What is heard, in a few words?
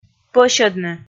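"пӧсьӧдны", Komi, female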